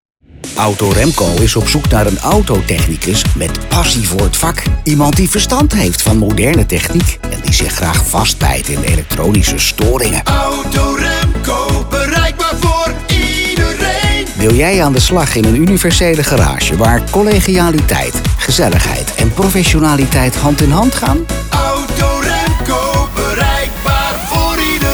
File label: dutch automotive